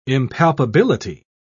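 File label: "impalpability", British English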